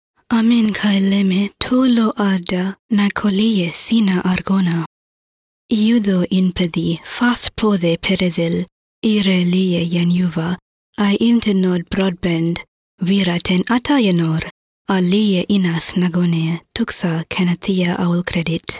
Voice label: radio ad; Internode; Elvish